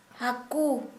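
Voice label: Santali female fish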